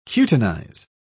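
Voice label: "cutinize", British English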